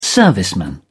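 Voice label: "serviceman", British English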